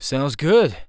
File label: Acknowledge, Human Male; zps; HumanMale-01